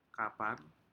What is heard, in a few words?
"kapan", Aneityum; fish